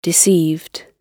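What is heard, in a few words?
"deceived", British English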